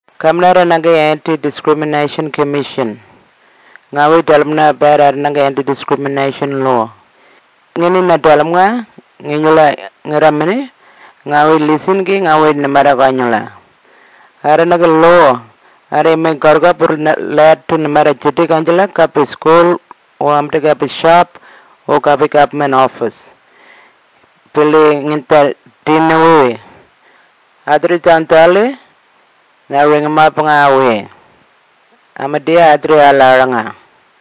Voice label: tiwi; talking posters

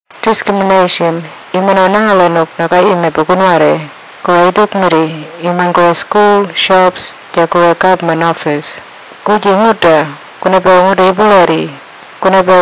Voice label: kuniwinjuku

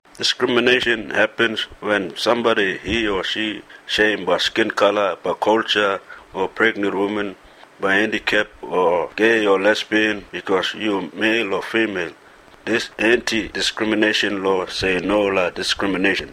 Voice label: kriol

